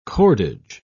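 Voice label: "cordage", British English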